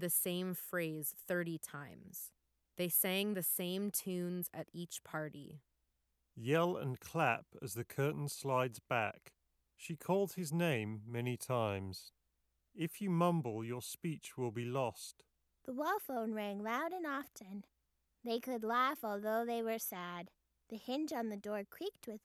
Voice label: HS55 Surround Ideal microphone sample; Corsair